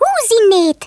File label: GO AWAY, Speech Hungarian; Worms Armageddon